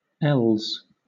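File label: "els", British English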